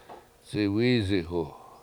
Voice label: "c7iwIzihu", Washo